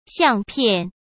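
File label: "相片", Mandarin Chinese; chinese flashcards; hsk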